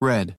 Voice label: NORMAL RED, American; American IPA phonic